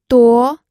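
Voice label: "duo", Mandarin Chinese, second tone; pinyin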